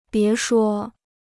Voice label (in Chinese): "别说", Chinese